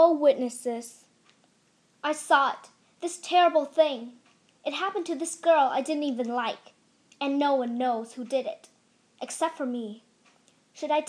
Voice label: voice acting

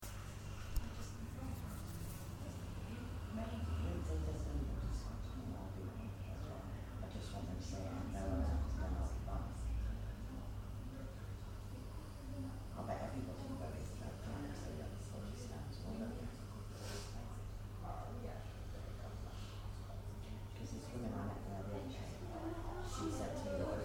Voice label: chat in dentist waiting room Cheltenham

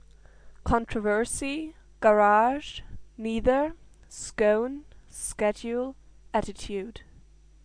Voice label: female; munich, germany

